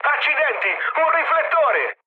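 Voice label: Italian searchlite mood med, speech; War Thunder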